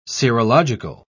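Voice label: "serological", British English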